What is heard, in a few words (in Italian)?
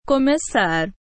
Portoghese